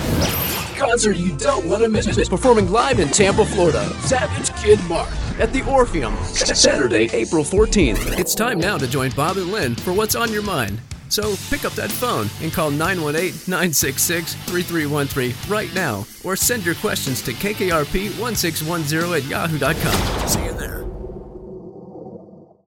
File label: Radio Imaging Demo